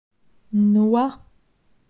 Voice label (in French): "Noix", phonetique; la graphie x